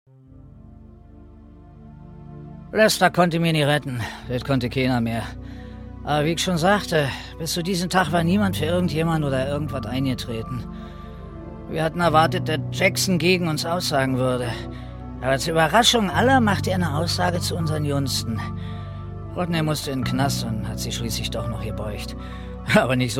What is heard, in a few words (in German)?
dialekt synchron